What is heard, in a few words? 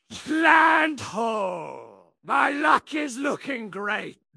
happy; blackwind; fertig classic games